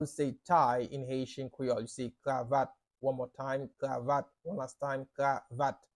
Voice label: Tie in Haitian Creole Kravat pronunciation by a Haitian Creole teacher